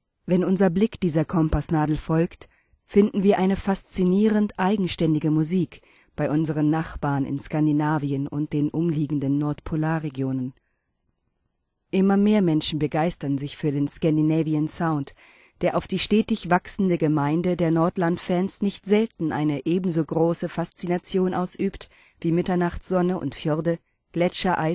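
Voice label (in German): Doku, Männer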